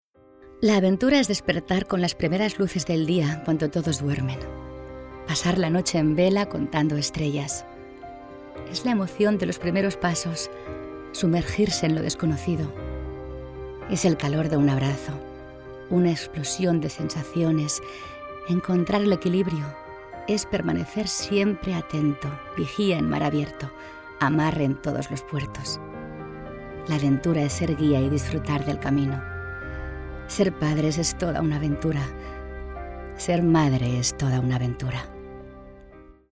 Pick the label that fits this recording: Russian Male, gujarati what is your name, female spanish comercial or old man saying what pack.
female spanish comercial